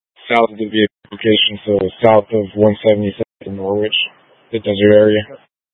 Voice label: Mutual Aid-Intersystem Interop B, scanner